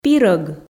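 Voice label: "пирӧг", Komi, female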